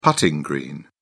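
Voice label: "putting green", British English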